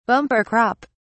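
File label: "bumper crop", British English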